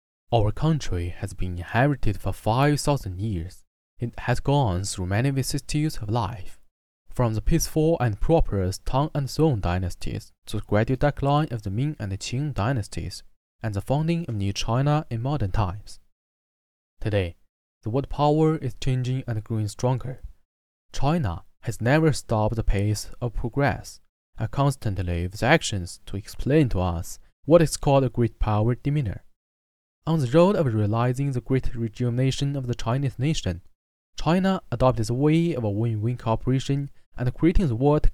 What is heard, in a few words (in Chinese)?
英语